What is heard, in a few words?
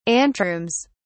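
"anterooms", British English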